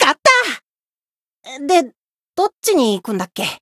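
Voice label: win, Japanese; rajah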